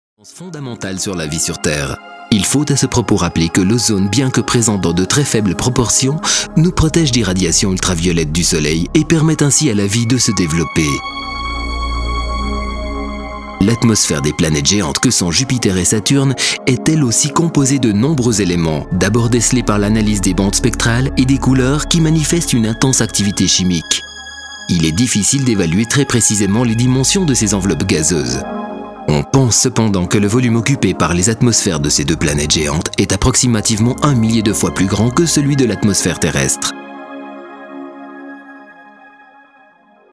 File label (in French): pub voix off